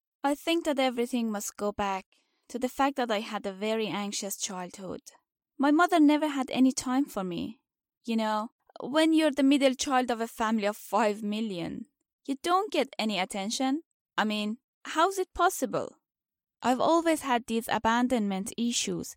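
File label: Dari accented English Characters; GoLocalise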